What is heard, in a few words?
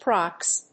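"prox", English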